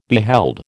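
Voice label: "beheld", American English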